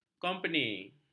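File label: "kompeni", Malay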